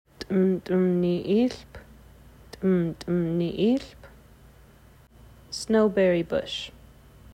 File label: tm̓tm̓niʔilp, Nsyilxen Plant Names; snowberry bush